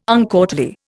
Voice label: "uncourtly", Indian